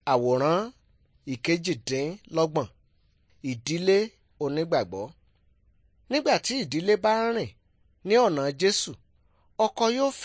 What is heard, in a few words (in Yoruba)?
good news yoruba